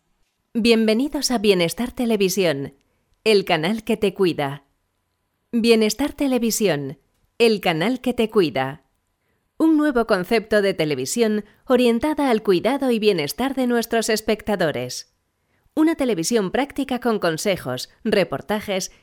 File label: promo bienestar television spanish voiceover